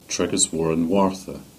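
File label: "Higher Tregajorran" Cornish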